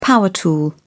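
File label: "power tool", English, British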